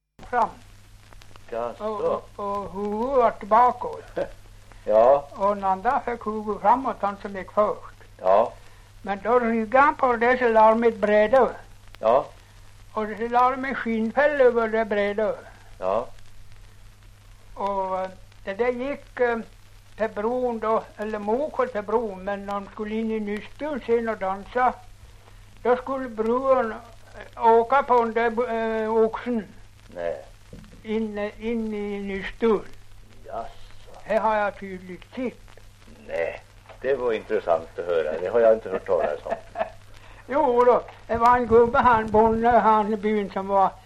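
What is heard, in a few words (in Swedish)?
Ovansjö Gästrikland, dialekter; Folkminnen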